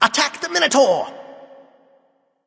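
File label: Attack, male; smite; loki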